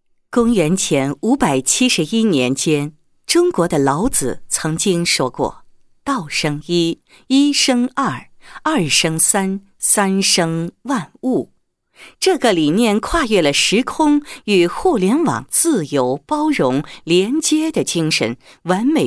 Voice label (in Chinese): 女, 企业宣传; 岩韵新思维物联网宣传配音